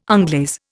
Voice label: "onglaze", Indian